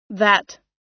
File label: "that", us